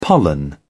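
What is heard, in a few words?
"pollen", British English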